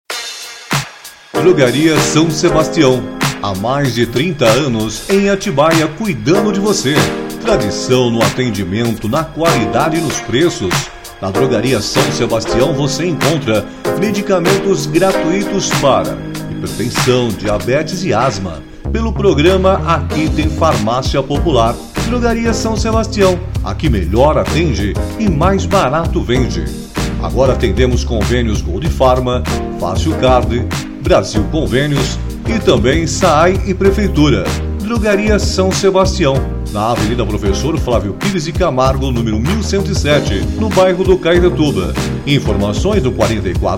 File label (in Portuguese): locutor locucao padrao